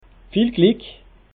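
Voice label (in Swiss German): "viel glieck", Alsatian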